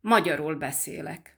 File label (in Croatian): mađarski; POP UP Zagreb